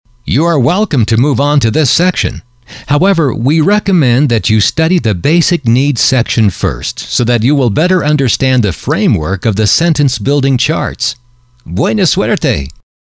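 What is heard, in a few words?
you are welcome to move on to this section, male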